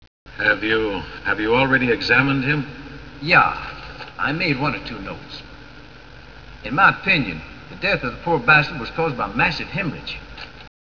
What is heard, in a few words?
zombie